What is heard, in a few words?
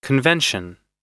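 "convention", English; oxford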